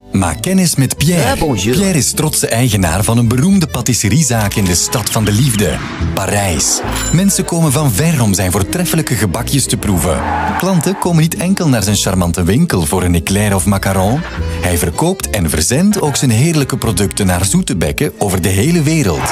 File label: Youtube Ad